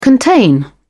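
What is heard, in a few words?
"contain", British English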